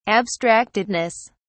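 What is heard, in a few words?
"abstractedness", British English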